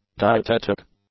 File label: "dietetic", english; adjectives